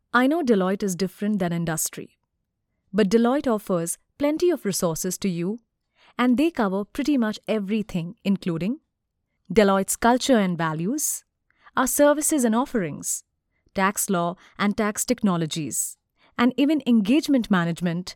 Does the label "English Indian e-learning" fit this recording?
Yes